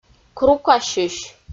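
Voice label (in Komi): "крукасьысь", Komi-Zyrian, female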